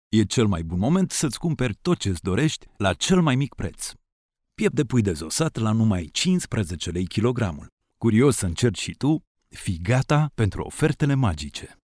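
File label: Romanian male voice over, sales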